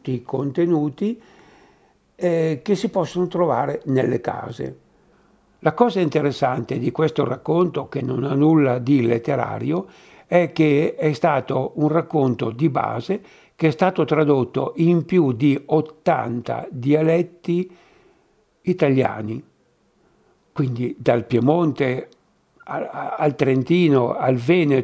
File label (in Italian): dialetto